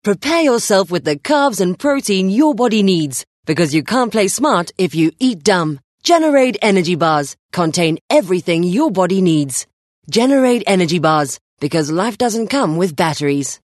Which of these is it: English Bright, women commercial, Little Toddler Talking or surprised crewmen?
English Bright